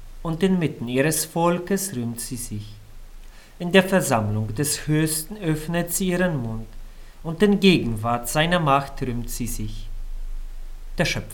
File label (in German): Lesung; 2 Sonntag nach Weihnachten